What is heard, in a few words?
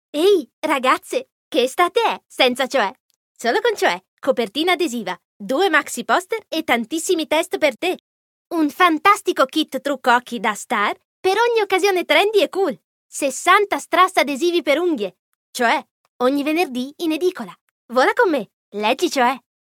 it commercial